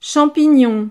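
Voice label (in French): "champignon", French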